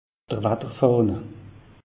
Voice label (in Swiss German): "watterfahne", alsace; meteo